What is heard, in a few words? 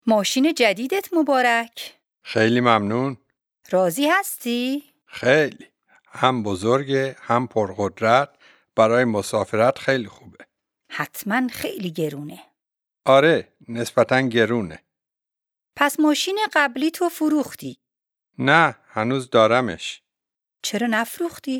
Dialogue lesson Farsi